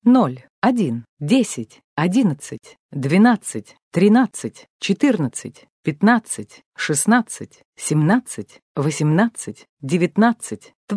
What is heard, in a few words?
Dealer Voice, sk, Roulette; real Dealer European Roulette Vintage; ru